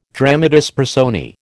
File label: "dramatis personae", American